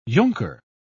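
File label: "junker", British English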